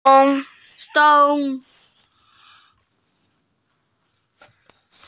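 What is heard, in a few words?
"dong1", Mandarin Chinese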